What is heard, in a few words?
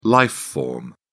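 "life form", British English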